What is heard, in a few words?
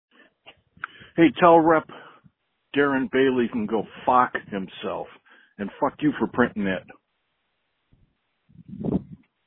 Voicemail